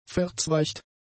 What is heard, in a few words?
"verzweigt", German; adjectives